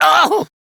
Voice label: Pain; Warframe; Battle; CorpusAristocratManager; Treasurer; en